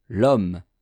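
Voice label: "lhomme", French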